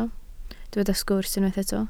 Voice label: "sgwrs", Welsh